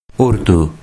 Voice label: "Urdu", Urdu

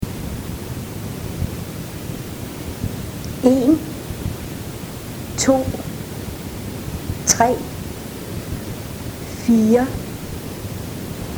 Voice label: numbers, danish

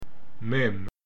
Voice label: "mem", Hebrew

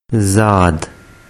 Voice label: "zaad", urdu

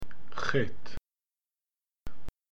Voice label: "het", Hebrew

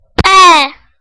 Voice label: "p", tigrinya, alphabet sounds

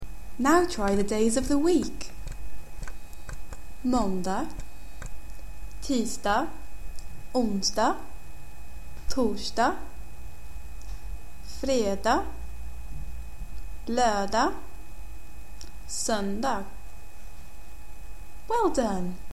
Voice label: DAYS OF THE WEEK, swedish

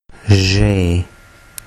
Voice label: "zhe", urdu

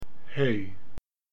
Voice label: "hei", Hebrew

